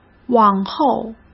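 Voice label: "往后", Mandarin Chinese; chinese-flashcards; hsk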